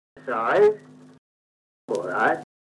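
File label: kent farningham male price, accents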